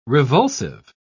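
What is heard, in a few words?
"revulsive", British English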